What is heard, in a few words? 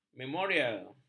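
"memorial", Malay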